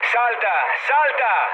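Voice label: Italian bailout mood low, speech; War Thunder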